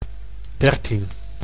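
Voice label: "dertien", Dutch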